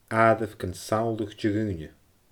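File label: "aaireamh gun samhladh de dhaoine", Scottish Gaelic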